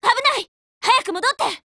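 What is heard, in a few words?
defense, Japanese; Gm6Lynx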